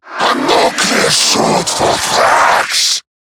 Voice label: Cover Me, en; Zariman Wraith

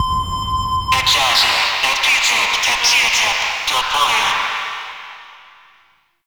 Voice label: Ship Announcer; Warframe; Ambience; Guild

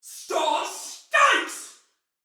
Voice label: Attack Heavy Soldier Female; Battle; Barks; Dialog

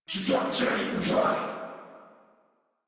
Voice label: Surprised Sniper, en

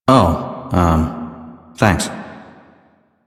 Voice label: Citizen Male, en, Mall; Citizens; Warframe

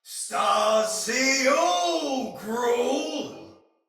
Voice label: Sight Lost Shout, Soldier Male; Battle; Barks; Dialog; Sinecure Soldier Male B

